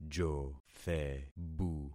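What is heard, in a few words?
"jothebu", concatenated syllables; Affixing experiment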